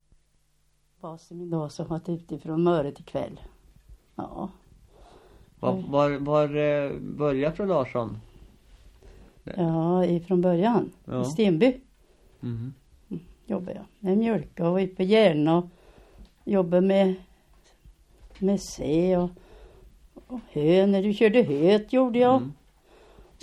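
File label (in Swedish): Västra Ny Östergötland, dialekter; Folkminnen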